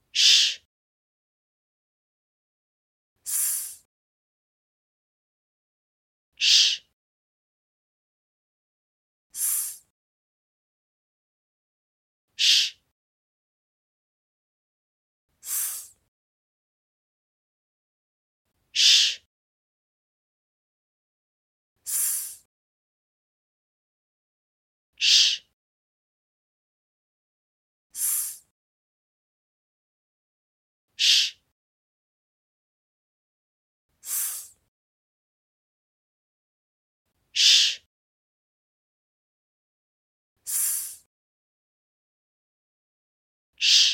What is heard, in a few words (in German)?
Sch S stimmlos im Kontrast